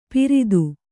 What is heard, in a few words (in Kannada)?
"ಪಿರಿದು", Kannada